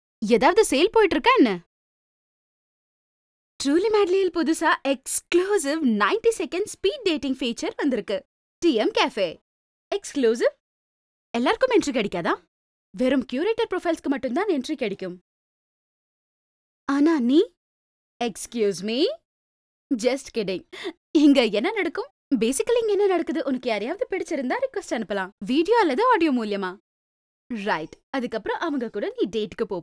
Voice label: tamil